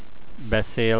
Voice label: do you speak, hungarian